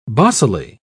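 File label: "bossily", British English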